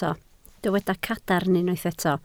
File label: "cadarn", Welsh